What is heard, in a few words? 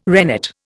"rennet", Indian